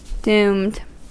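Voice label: "doomed", US English; pronunciations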